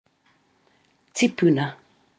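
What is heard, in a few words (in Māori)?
"tipuna", Māori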